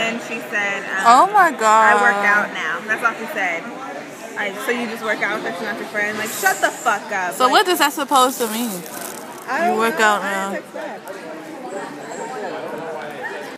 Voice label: Girl Talk